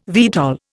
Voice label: "VTOL", Indian